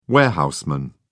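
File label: "warehouseman", English, British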